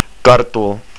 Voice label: potato, estonian

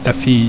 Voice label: "Fille", french

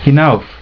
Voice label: "Hinauf", German

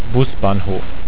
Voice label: "busbahnhof", German

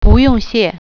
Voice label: "welcome", Mandarin

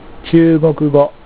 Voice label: Chinese, japanese

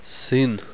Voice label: "son", russian

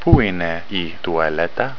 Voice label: where is the bathroom, Greek

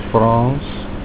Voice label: "french", Afrikaans

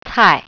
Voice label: vegetable, mandarin

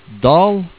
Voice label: "dal", Norwegian

